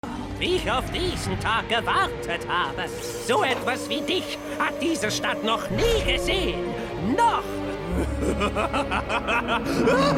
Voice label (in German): Animation Boesewicht Charge